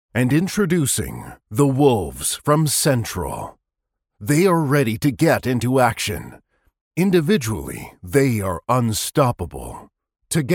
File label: locutor estados unidos american english voiceover; locutor Estados Unidos, North American voice over